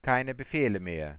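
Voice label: no more commands, German, Voice Sounds; Samsung SGH-i310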